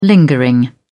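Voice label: "lingering", British English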